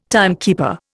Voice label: "timekeeper", Indian